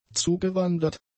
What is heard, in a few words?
"zugewandert", German; adjectives